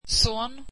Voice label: swedish son